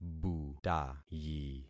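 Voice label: "butayi", concatenated syllables; Affixing experiment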